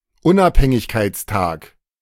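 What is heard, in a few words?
"Unabhängigkeitstag", German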